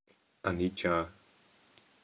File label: "anicca", pi